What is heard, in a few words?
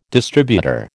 "distributor", American